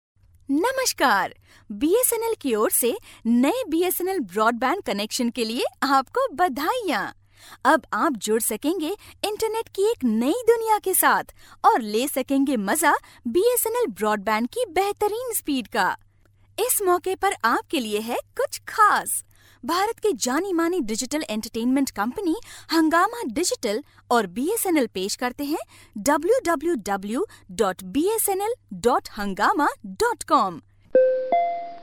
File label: Hindi Female